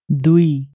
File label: "dui", Nepali